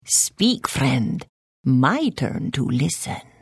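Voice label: Hello, Khajiit female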